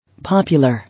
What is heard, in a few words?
"Popular", English